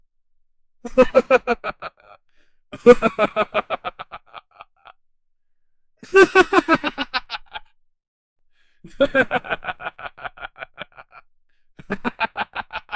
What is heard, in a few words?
"o no no no", annoyance